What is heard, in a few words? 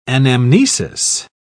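"anamnesis", British English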